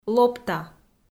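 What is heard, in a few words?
"лопта", Komi, female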